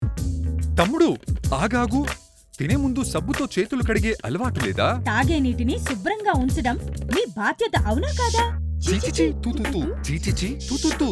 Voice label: Telugu Audio Spot Youth Hand Washing and safe handling of drinking water